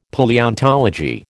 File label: "Palaeontology", American